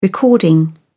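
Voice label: "recording", British English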